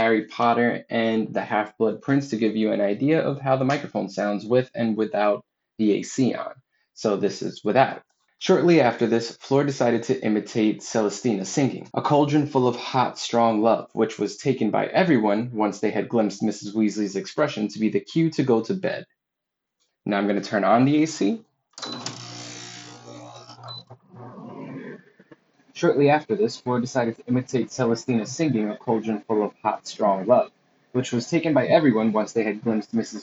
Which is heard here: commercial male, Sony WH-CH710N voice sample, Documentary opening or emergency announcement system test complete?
Sony WH-CH710N voice sample